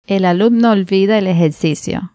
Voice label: el alumno olvida el ejercicio, cuba, pinar del rio femenina; spansk; fonetica

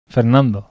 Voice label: cons n "fernando", bizkaia; spansk; fonetica; biskaia

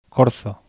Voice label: "corzo", bizkaia masculino; spansk; fonetica; vocal o

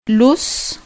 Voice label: vocal u luz cuba, pinar del rio femenina; spansk; fonetica

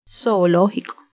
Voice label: hiato "zoológico", Cuba, Pinar del Río femenina; spansk; fonetica

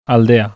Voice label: cons d aldea bizkaia; spansk; fonetica; biskaia

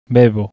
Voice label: cons b bebo bizkaia; spansk; fonetica; biskaia

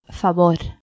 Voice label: cons f favor cuba; spansk; fonetica

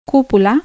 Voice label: vocal u cupula cuba, pinar del rio femenina; spansk; fonetica

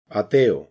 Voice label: hiato eo ateo, castilla masculino; spansk; fonetica

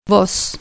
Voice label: vocal "voz", Cuba, Pinar del Río femenina; spansk; fonetica

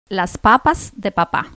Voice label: cons p frase "la spapa de papa", cuba; spansk; fonetica

